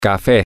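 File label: coffee, Spanish, male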